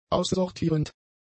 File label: "aussortierend", German; adjectives